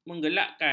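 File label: "menggelegakkan", Malay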